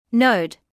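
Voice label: "node", British English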